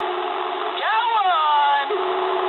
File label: eng player killed enemy mood low, speech eng; War Thunder